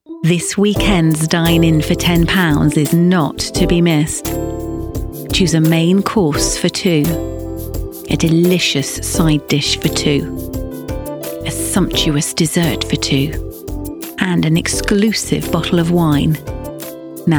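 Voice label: comercial, British English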